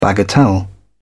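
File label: "bagatelle", British English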